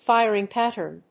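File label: "firing pattern", English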